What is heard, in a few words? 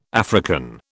"African", British